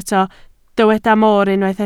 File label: "moor", Welsh